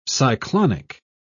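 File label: "cyclonic", British English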